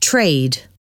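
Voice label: "trade", English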